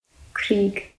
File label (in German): japanisch